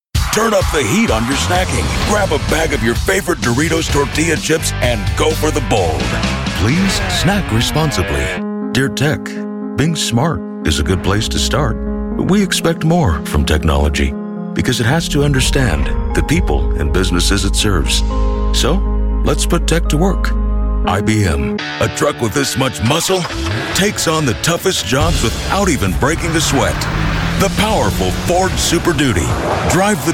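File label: male, English US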